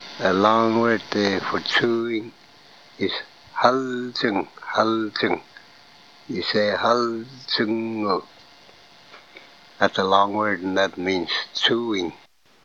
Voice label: chewing